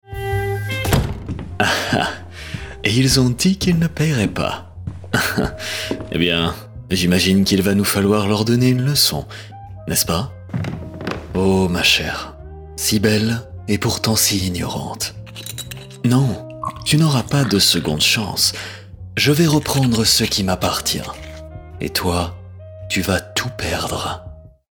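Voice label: Character Natural (French)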